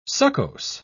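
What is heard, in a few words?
"succose", British English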